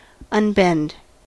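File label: "unbend", US English; pronunciations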